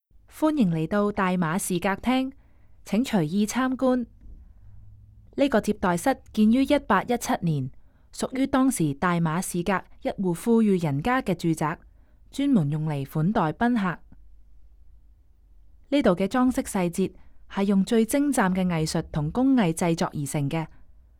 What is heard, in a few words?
Cantonese, audio guide; 大馬士革廳導覽